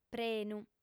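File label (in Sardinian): "prenu", female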